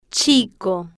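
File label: "chico", Spanish, slow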